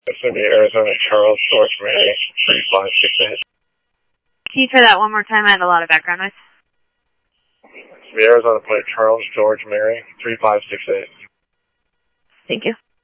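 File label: police department, scanner audio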